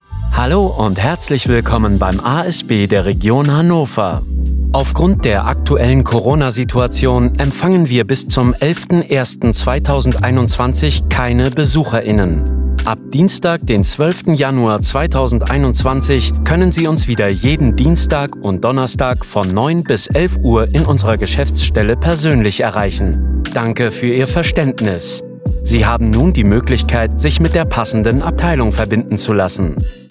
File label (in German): telefonansage mit musik; Sprecher in Berlin, Hamburg, Hannover; Muttersprache Deutsch